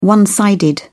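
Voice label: "one-sided", English, British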